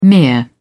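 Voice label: "mere", British English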